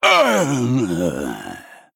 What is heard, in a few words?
Male Troll Death